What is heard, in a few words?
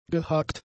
"gehakt", German; adjectives